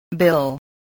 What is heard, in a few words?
"bill", English